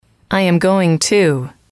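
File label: i am going to, English, female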